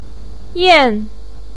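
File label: "yan4" Chinese syllable, pinyin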